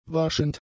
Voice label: "waschend", German; adjectives